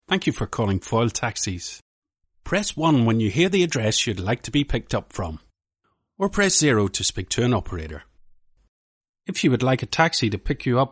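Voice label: Northern Irish, male, IVR; accent of ireland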